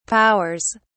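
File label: "powers", English, British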